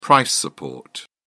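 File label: "price support", British English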